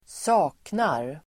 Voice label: "saknar", Swedish

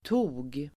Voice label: "tog", Swedish

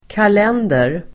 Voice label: "kalender", Swedish

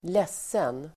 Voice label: "ledsen", Swedish

